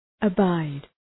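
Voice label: "abide", English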